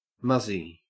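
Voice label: "muzzy", English, Australian accent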